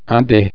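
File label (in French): "des", French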